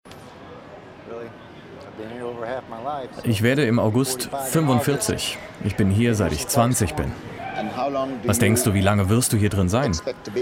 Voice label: OFF Overvoice State Prison Indiana; LOFT VOICES